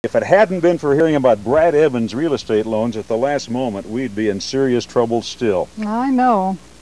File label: testimonial